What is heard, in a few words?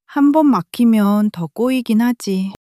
sadness, monologue